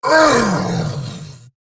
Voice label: Death, en; Warframe; Eidolon Grineer; Battle Barks